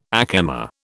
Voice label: "ack emma", British